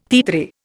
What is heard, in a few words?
"titre", Indian